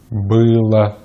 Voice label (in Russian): "было", Russian; easypronunciation